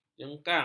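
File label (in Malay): "jengkang", Malay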